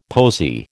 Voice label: "posy", American